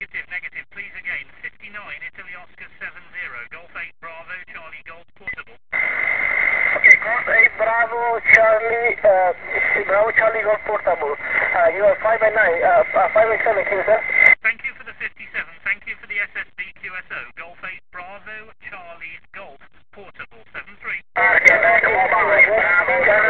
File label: ssb qso